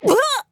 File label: Grunt Hit; Paladins; Rider